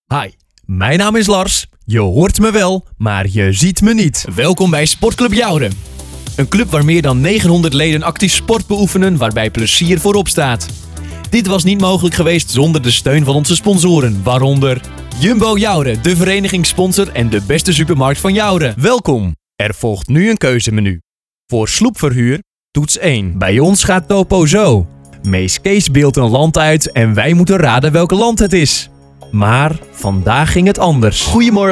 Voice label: Voice demo